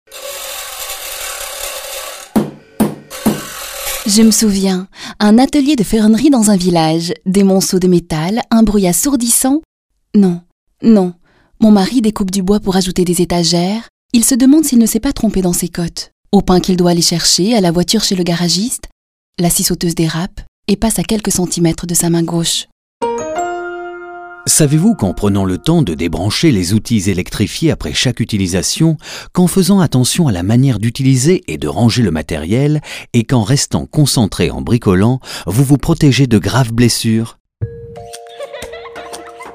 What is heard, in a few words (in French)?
pub, prevention bricolage; Macif